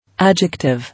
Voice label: "Adjective", English

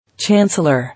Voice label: "Chancellor", English

